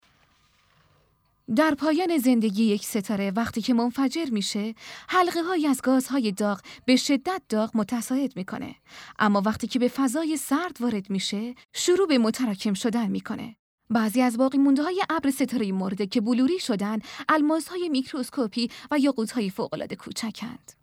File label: documentary